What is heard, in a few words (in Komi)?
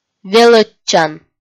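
"велӧдчан", Komi, female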